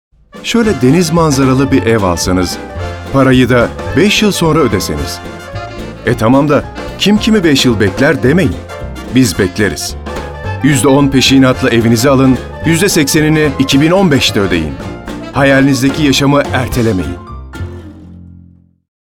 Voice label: enerjik esprili; seslendirme

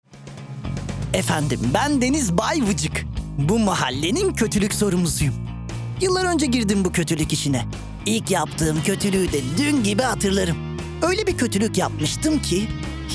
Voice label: animatik karakter, seslendirme

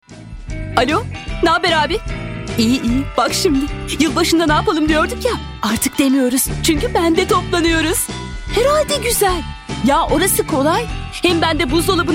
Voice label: enerjik esprili

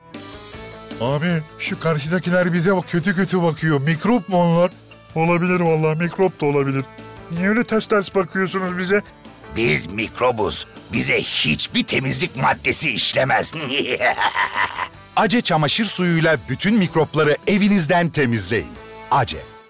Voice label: animatik karakter

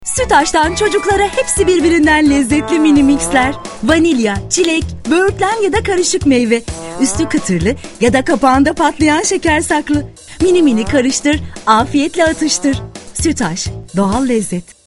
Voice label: enerjik esprili, seslendirme

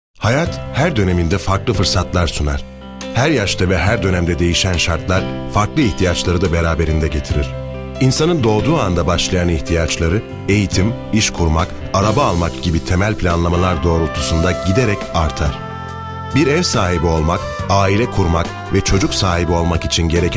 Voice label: tanitim

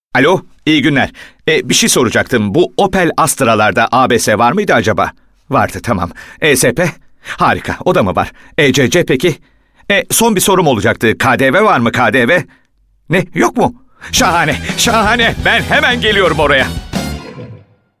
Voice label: enerjik esprili

